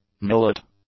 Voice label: "millet", English; nouns

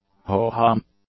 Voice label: "ho-hum", English; adjectives

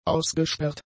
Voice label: "ausgesperrt", German; adjectives

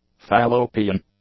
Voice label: "fallopian", English; adjectives

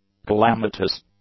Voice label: "calamitous", English; adjectives

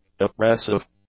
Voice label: "oppressive", English; adjectives

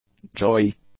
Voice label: "jawy", english; adjectives

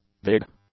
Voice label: "big", English; adjectives

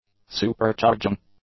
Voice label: "supercharging", english; nouns

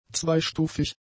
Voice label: "zweistufig", German; adjectives

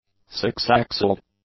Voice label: "six-axled", English; adjectives

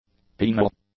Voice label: "penal", English; adjectives

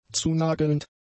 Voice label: "zunagelnd", German; adjectives

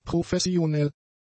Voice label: "professionell", German; adjectives

